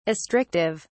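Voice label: "astrictive", British English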